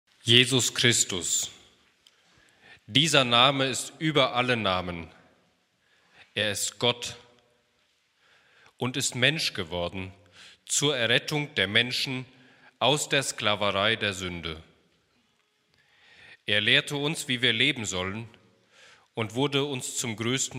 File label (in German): Lesung; Passionssingen 2026